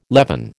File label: "leaven", American